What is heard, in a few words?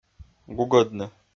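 "гугӧдны", Komi-Zyrian, male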